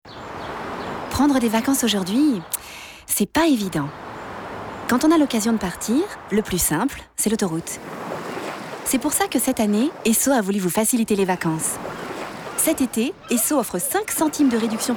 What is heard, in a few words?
RADIO; ESSO